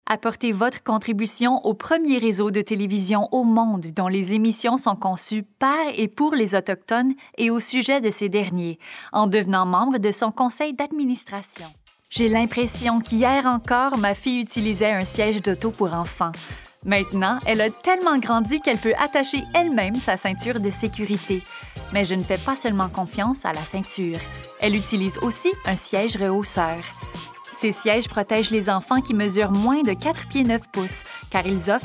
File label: French, Demo Reel